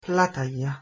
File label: "Plataia" Ancient Greek